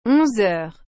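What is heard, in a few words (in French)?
"onze heures", French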